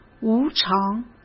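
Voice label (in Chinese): "无偿" Mandarin Chinese; hsk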